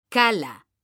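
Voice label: "cala", Spanish, female; mekanta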